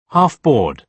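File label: "half board", British English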